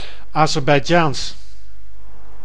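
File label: "Azerbeidzjaans", Dutch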